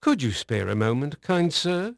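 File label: English male; Pirates Of The Caribbean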